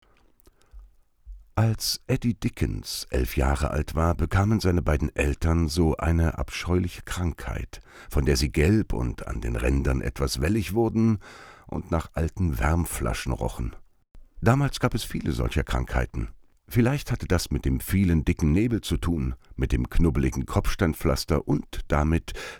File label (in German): Hoerbuch; Schlimmes Ende